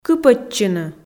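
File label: "кыпӧдчыны", Komi, female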